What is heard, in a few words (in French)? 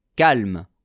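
"calme", French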